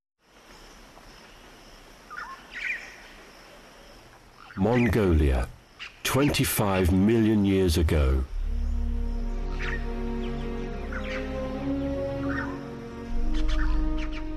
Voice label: Dinosaur Narration